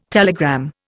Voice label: "telegram", Indian